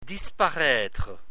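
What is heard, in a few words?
"disparaitre", French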